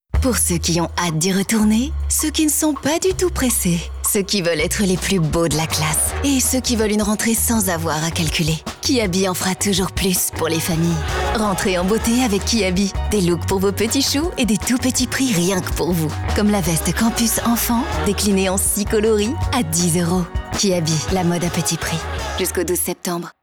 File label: radio; Kiabi; Toulouse